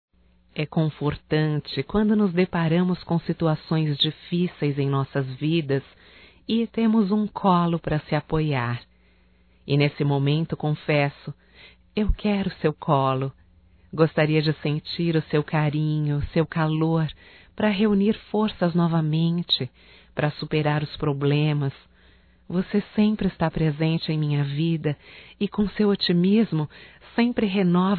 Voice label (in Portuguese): ROMANTICA(F), Românticas, fem